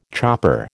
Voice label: "chopper", American

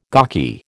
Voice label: "gawky", American

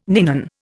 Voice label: "ninon", Indian

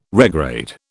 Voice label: "regrade", British

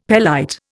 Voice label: "pelite", Indian; Dictionary

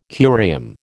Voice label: "curium", American

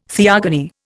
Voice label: "theogony", Indian

